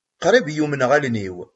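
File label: "Tettamnemt Tom?", Kabyle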